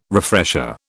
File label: "refresher", British